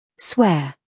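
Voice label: "scandal", American; swear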